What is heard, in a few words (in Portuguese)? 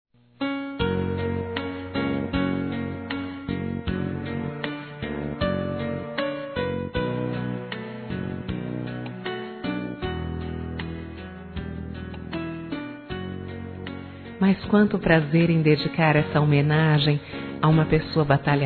EMPREGADA DOMESTICA F; fem